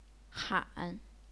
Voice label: "han3", chinese pinyin